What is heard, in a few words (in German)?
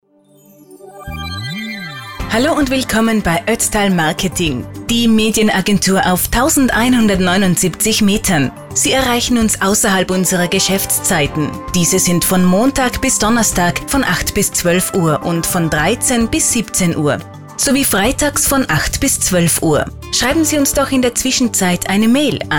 Nachtansage; Werbeagentur Oetztal; telefonansagen sonstige